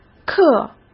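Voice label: "刻", Mandarin Chinese; chinese-flashcards; hsk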